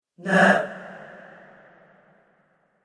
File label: Female Ghost Shouts No; Ghost Sound Effects